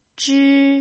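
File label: "zhi" Mandarin, first tone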